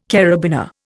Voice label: "karabiner", Indian